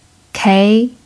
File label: "kei2", chinese